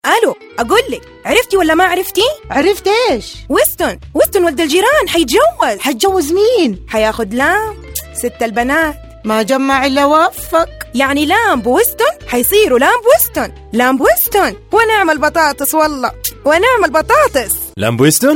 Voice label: Old woman, gulf saudi arabia riyadh female